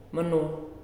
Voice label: "Bird", Nalik